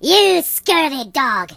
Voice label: "Coward", Pirate

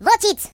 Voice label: Watch it, Russian; hedgewars

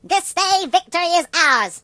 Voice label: Victory, British; hedgewars

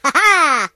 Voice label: Jump, British; hedgewars

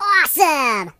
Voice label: "Brilliant", Surfer voice; hedgewars

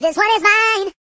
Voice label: "This one is mine", voices; hedgewars

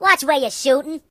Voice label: Watch it, voices Mobster; hedgewars

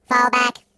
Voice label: "Take cover", robot voice

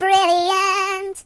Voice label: "Brilliant", voices Singer; hedgewars

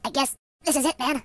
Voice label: "So long", Surfer voice; hedgewars

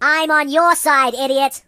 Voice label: Same team, Mobster; hedgewars

